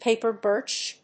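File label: "paper birch", English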